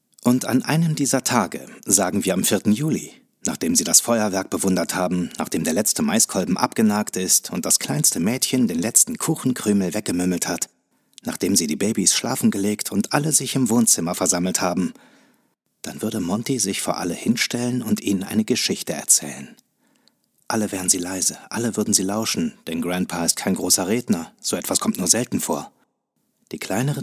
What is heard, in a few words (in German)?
Lesung Roman, Audiobook (Hörbuch), Tale (Erzählung), German